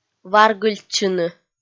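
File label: "варгыльтчыны", Komi, female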